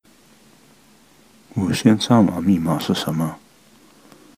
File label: wifi password mandarin